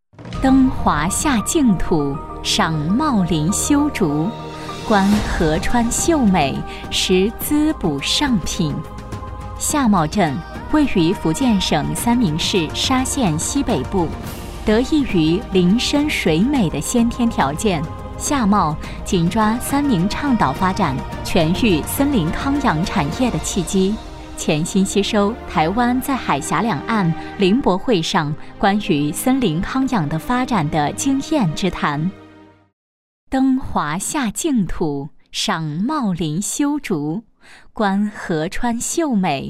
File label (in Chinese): 女 专题; 发展康养之旅